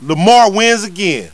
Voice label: Cheer; Lamar Davis